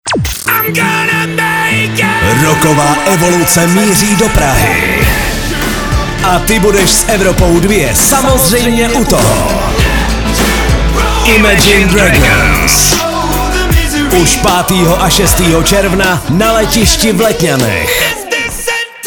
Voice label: promo